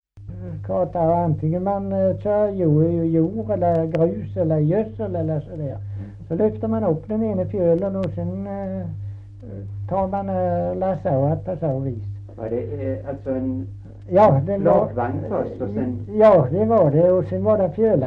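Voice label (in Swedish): Kristianopel, Swedish dialect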